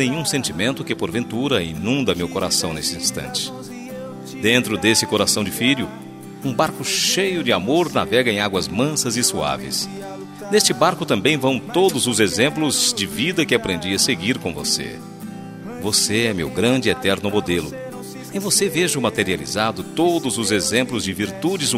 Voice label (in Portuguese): ANIV ATRASADO PARA PAI MASC, telemensagem aniversario atrasado familia